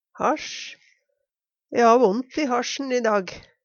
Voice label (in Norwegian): hasj, Numedalsmål; E ha vont i hasjen i dag.